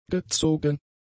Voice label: "gezogen", German; adjectives